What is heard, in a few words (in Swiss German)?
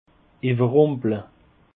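"ewerrumple", Alsatian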